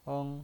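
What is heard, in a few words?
"eng" Mandarin Chinese, first tone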